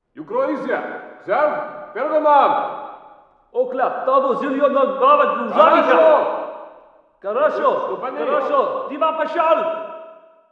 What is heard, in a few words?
walla rus mountain conv